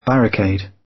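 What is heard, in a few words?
"barricade", British English; Time, history; Thời gian, lịch sử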